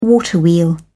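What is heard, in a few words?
"waterwheel", British English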